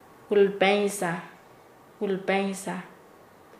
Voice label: "cobrador", Nasa Yuwe; paez